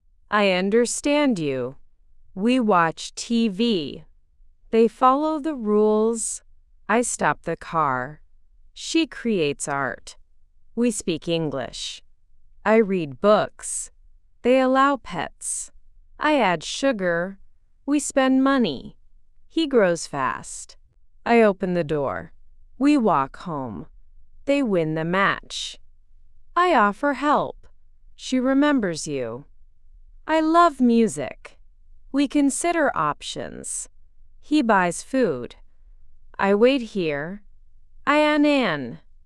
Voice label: AI speech